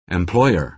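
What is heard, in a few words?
"employer" American English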